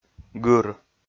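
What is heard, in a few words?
"гыр", Komi, male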